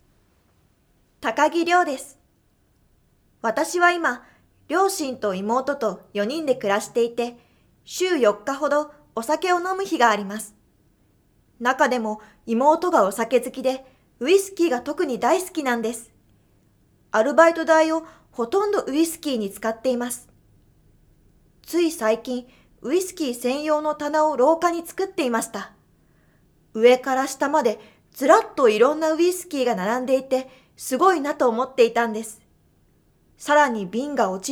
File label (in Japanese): フリートーク